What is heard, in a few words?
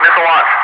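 "missile launch", English, Wingman; Messages; DCS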